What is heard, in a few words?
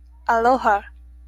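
"aloha", Japanese, female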